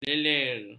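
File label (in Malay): "leler", Malay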